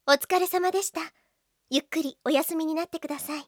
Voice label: win close, Japan Anime Girls; Windows; Bing; Nanae

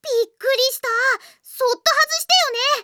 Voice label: device off, Anime Girls; Windows; Bing Japan; Nana

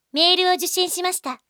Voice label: mail, Japan Anime Girls; Windows; Bing; Nanami

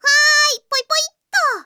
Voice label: trash, Anime Girls; Windows; Bing Japan; Nana

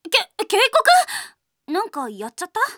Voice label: alert, Japan Anime Girls; Windows; Bing; Nanao

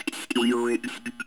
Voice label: comm voice; Xbox

